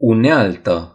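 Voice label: "tool", Romanian; gcompris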